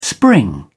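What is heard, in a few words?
"spring up", English, British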